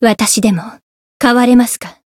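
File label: Voice growth, Japanese; niike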